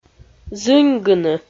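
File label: "зыньгыны", Komi, female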